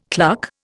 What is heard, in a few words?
"cluck", Indian